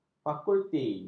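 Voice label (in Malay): "fakulti", Malay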